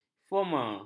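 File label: "informer", Malay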